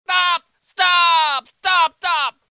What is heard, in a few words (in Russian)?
kovboy krichit stop